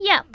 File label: "ch" yap; The Interactive Alphabet